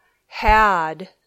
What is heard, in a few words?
"had", English